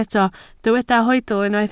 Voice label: "hoedl", Welsh

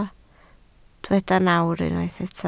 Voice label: "nawr", Welsh

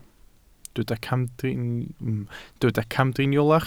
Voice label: "camdriniolach", Welsh

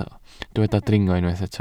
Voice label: "dringo", Welsh

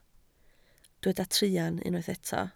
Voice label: "truan", Welsh

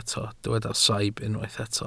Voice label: "saib", Welsh

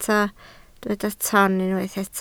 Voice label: "toon", Welsh

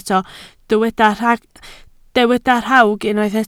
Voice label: "rhawg", Welsh

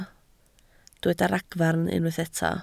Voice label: "ragfarn", Welsh

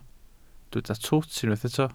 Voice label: "twt", Welsh

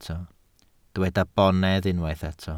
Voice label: "bonedd", welsh

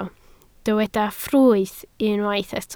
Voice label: "ffrwyth", Welsh

